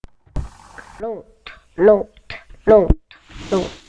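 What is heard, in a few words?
"lute", spoken word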